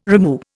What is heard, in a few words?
"rimu", Indian